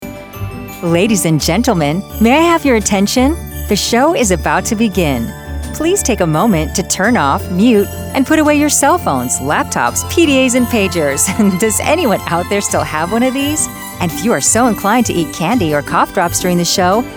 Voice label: Presenter